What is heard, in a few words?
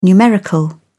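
"numerical", British English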